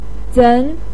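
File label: "zen" second tone, Mandarin Chinese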